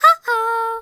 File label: uh oh; speech